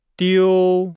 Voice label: "diu", Nihao

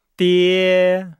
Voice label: "die", Nihao

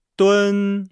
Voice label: "dun", Nihao

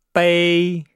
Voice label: "bei", Nihao

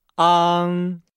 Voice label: "ang", Nihao

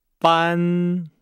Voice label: "ban", Nihao

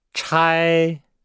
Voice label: "chai", Nihao

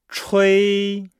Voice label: "chui", Nihao

